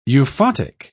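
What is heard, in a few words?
"euphotic", British English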